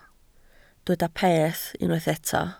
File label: "peth", Welsh